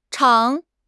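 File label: "zhang3", Chinese; HSK; HSK3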